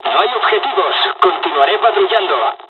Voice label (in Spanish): dialogs spanish; usa; War Thunder